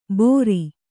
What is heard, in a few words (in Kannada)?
"ಬೋರಿ", Kannada